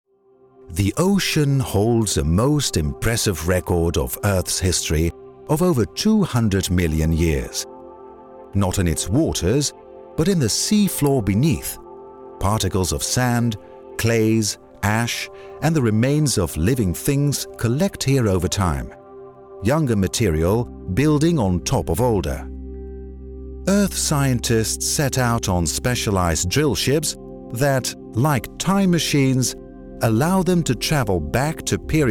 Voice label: UK Docu